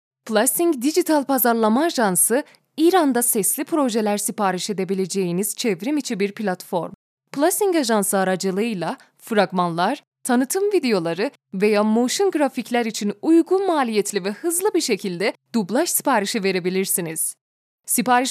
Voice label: narrator